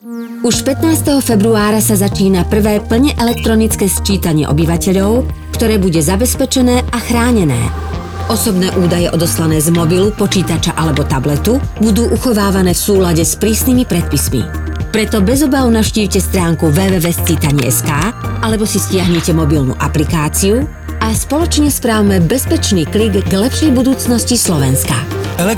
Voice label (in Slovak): rozhlas